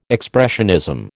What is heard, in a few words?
"expressionism", American